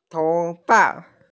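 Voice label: "hair", Mandarin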